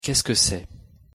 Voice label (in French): qu'est-ce que c'est, pronunciation fr